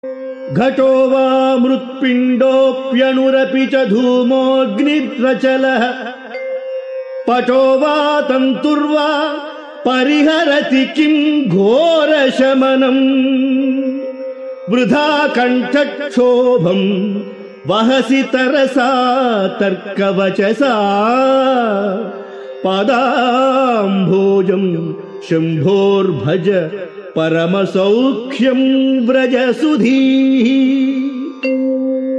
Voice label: Sanskrit